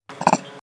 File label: Female drone